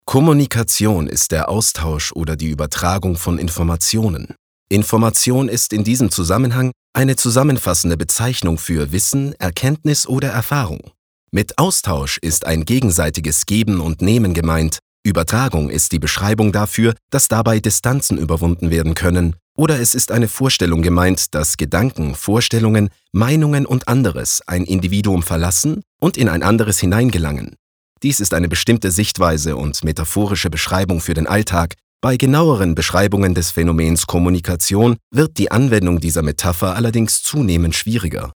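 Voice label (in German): Kommentar Freundlich; Kommunikation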